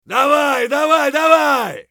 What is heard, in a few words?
bear battlecry; eft